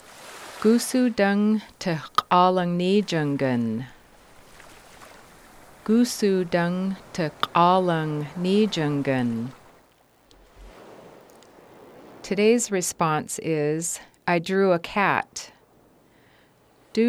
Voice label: Haida Cat, dúus (cat); I drew a .; Haida 5 Cat; uu Hl ta k'áalang níijanggan. (I drew a .)

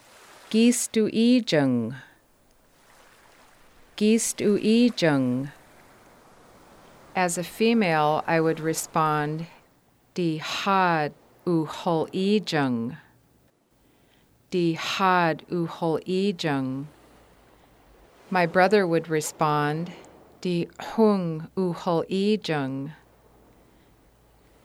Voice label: Haida Father; My father (m) – a male would say (díi Gung) My father (f) – a female would say (díi xáad)

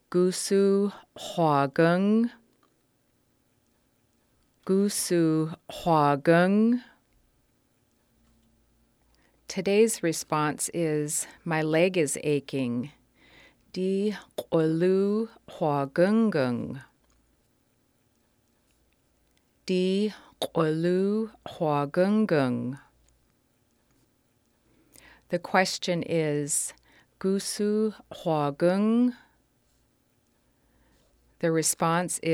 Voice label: Haida leg, My leg (díi k'uluu); Haida 1 leg

